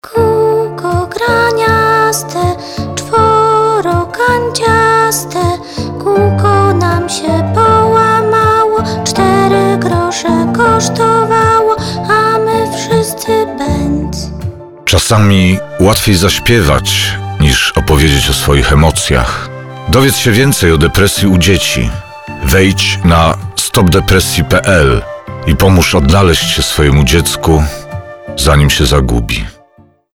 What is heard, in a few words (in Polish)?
spot radiowy; depresja dzieci; kolko